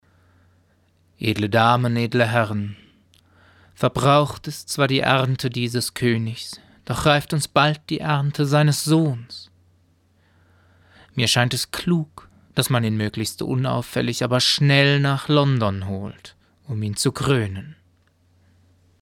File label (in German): Poetisch